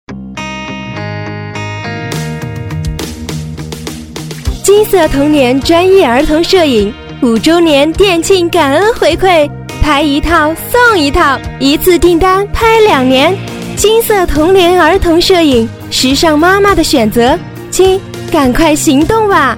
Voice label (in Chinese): 女 促销广告 年轻活泼; 甜美轻柔; 激情力度; 金色童年